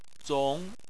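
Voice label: "zong" second tone, chinese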